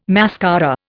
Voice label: "mascara", Indian